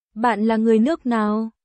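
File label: Vietnamese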